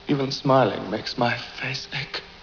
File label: smiling